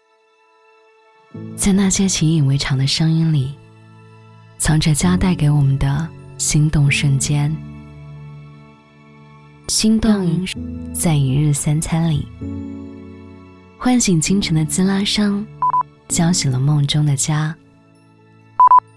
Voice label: 女 品牌宣传片; 卡萨帝520

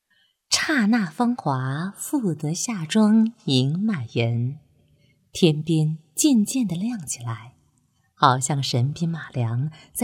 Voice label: 女 景色解说 成熟温柔真情; 刹那芳华

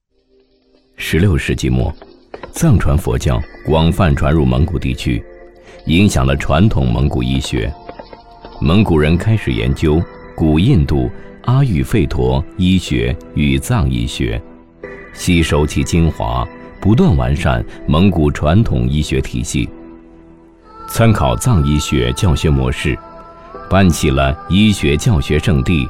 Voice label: 男 纪录片; 曼巴蒙古医学

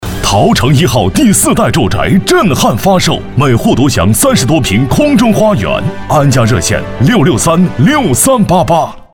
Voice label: 男 广告; 桃城一号

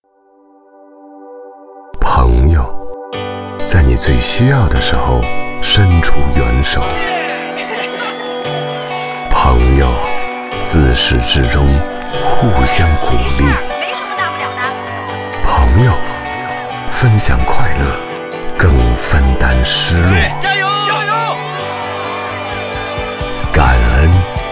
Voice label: 男 广播片花 低沉舒缓; 朋友

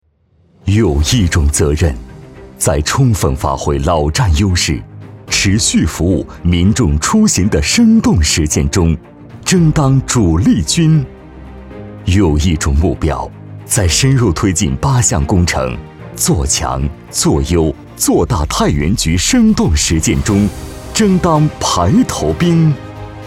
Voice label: 男 企业 工程 厚重 大气; 太原局工程

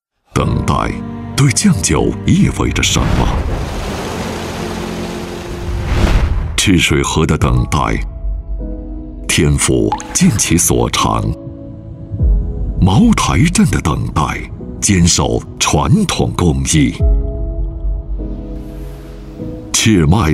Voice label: 男 广告 样音; 赤脉真龄酒

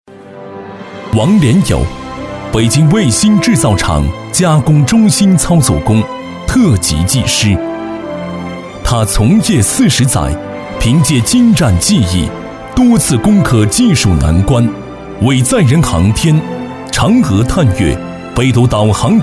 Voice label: 男 颁奖 人物颁奖类型

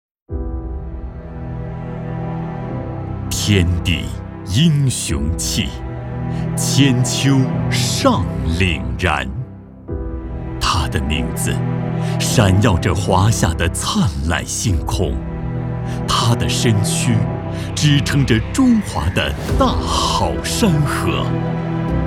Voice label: 男 宣传片 大气 雄浑 力度; 英雄

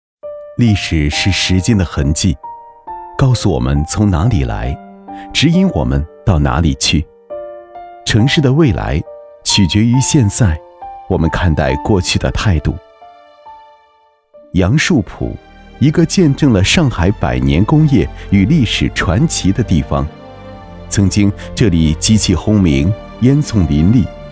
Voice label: 男 讲述

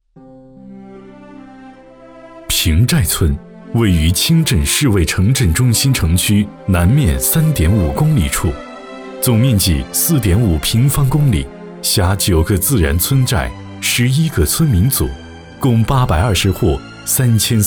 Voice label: 男 专题 汇报; 坪寨村扫黄打非

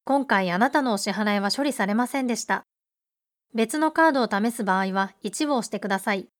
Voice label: 外籍日语女 叙述流畅

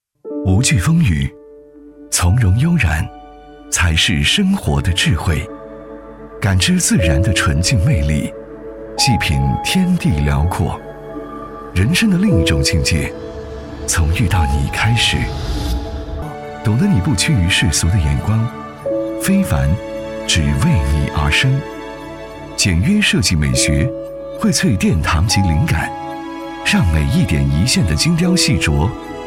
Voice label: 男 专题 门窗 温柔 优雅; 欧哲门窗

